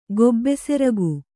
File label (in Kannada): "ಗೊಬ್ಬೆಸೆರಗು", Kannada